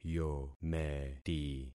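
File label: "yometi", concatenated syllables; Affixing experiment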